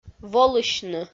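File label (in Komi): "волысьны", Komi, female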